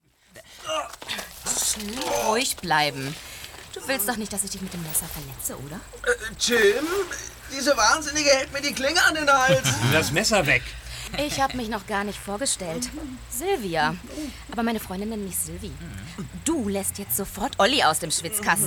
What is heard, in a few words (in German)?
Hoerspiel